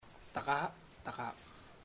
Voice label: Im going to eat, chamacoco; Puerto Diana

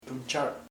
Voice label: lady, chamacoco; seNora

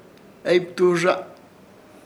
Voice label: "Eybiturrza", Ishir Chamacoco; nuera

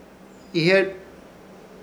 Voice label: "Ihyẽr", Ishir Chamacoco; pulso

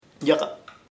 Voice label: deep, chamacoco; profundo

